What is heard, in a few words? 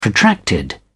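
"protracted", British English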